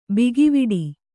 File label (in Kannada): "ಭಾಗವಡೆ", Kannada; bigiviḍi; ಬಿಗಿವಿಡಿ; (Verb); = ಬಿಗಿಹಿಡಿ